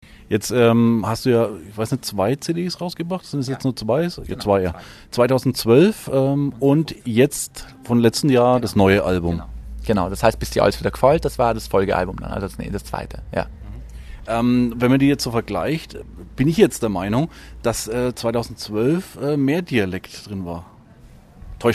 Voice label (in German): Interview Hochdeutsch